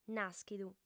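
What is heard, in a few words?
"nàschidu", Sardinian, female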